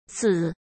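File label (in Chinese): "赐", Mandarin Chinese; hsk; chinese-flashcards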